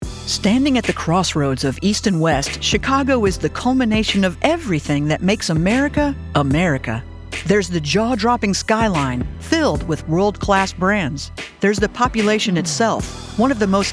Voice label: Narration